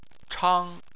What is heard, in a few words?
"chang1", chinese